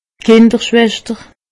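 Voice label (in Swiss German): "kinderschwester", Alsatian; medecine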